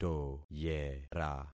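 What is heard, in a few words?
"toyera", concatenated syllables; Affixing experiment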